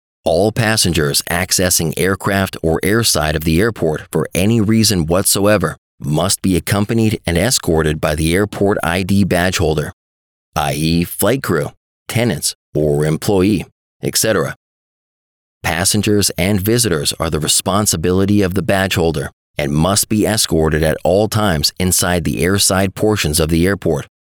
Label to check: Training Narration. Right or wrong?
Right